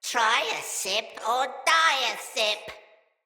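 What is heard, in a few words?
See Player Corrupted Sprouts, en; Battle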